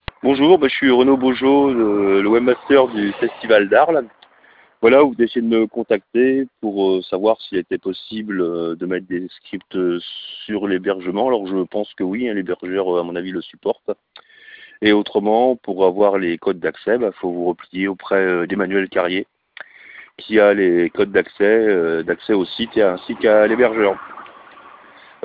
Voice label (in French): message telephoniques